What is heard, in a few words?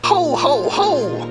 ho ho ho male sarcasm; Voice; xmas